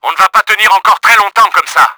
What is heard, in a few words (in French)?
HEALTH CRITICAL, french; Battle Engine Aquila